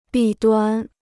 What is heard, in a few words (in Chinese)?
"弊端", Chinese